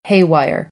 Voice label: "haywire", American; AmericanBritish